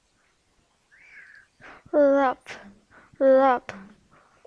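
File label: "rub", spoken word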